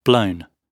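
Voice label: "blown", British English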